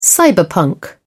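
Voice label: "cyberpunk", British English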